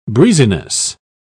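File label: "breeziness", British English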